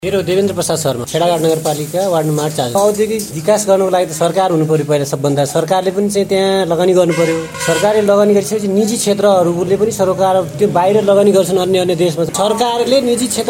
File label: voxpop